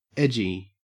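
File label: "edgy", Australian English; pronunciation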